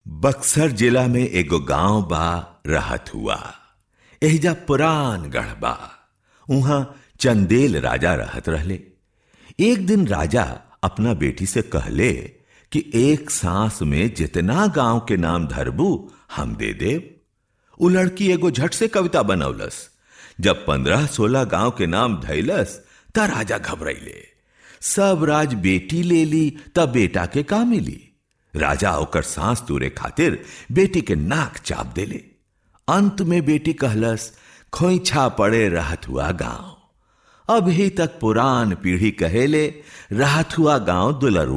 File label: Bhojpuri, narrative